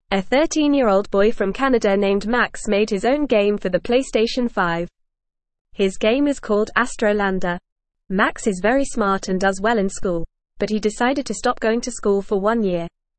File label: English Newsroom Beginner FAST Reading; 13 Year Old Max Makes Astrolander Game for PlayStation 5